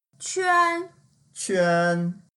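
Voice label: "quan1", Mandarin Chinese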